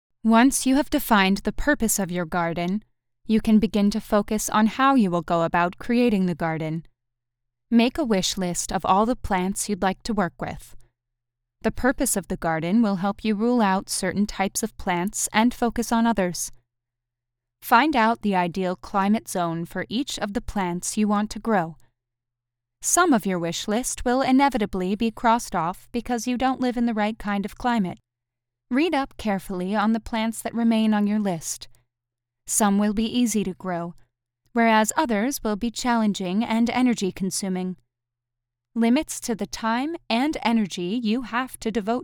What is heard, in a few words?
Nonfiction, voiceover